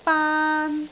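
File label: "baan1", Cantonese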